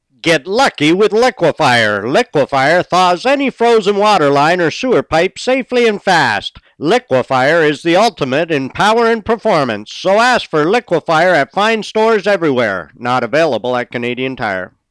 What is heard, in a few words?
Radio Commercial